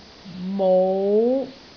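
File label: "mou5", Cantonese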